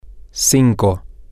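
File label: five, spanish, female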